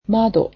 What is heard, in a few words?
"window", Japanese